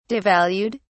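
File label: "devalued", British English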